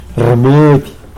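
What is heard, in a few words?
"medicine", Tlacolula Zapotec; rmudy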